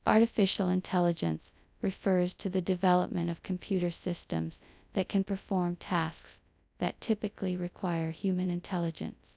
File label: speech t5 example output